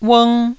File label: "ung1", taiwanese